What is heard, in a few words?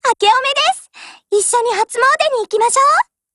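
NEW YEAR, Japanese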